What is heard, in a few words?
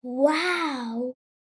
Young Reactions Girl Saying Wow